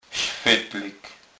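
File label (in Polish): "swetlyk", Kurpie dialect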